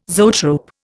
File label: "zoetrope", Indian